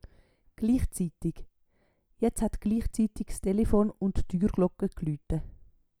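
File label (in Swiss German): "gliichziitig", Swiss German; Wortschatz Kapitel 8; Kapitel 8 Zusatzwortschatz 3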